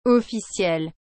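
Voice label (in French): "officiel", French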